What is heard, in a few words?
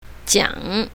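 "jiang3", Chinese pinyin, south, female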